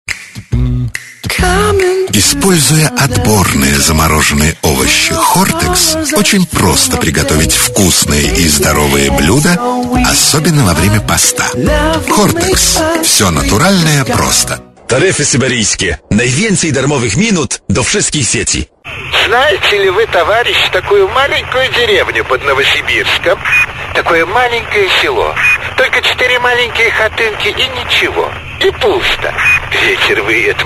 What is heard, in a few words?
COMMERCIALS